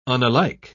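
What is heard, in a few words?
"unalike", British English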